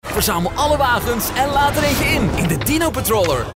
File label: Commercials